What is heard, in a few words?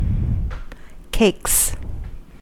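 "cakes" English, American accent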